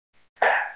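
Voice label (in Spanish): Voice Acting es F, Spanish, female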